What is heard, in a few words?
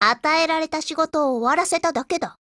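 WIN Japanese, Voice; GF Alarm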